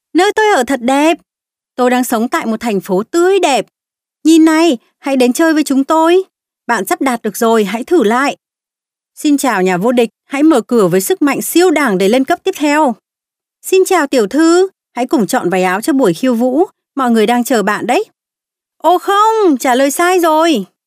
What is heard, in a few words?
Female Vietnamese Toys